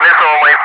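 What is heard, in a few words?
"missile away", English speech, Wingman; DCS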